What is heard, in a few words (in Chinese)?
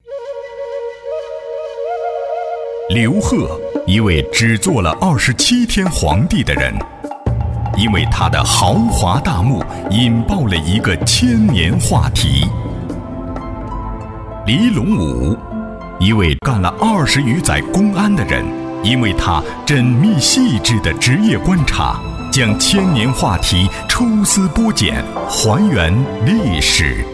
广播宣传